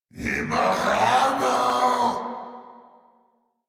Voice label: Reload, Grineer, en; Warframe; Grineer Chem Nox

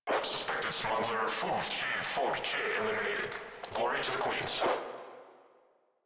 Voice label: Controller, Radio Interceptions

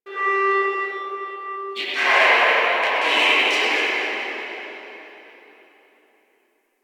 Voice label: Guild Announcer; Ambience; Warframe

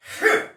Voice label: Attack, Soldier Male; Sinecure

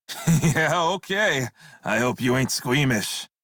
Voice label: Crew Hired Male en; Dialog; Warframe; Railjack Crew; Red Veil

